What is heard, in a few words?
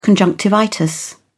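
"conjunctivitis", British English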